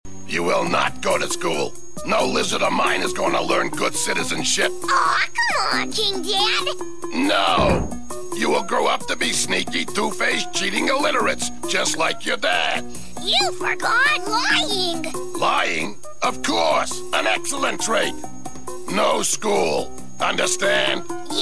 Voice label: pep talk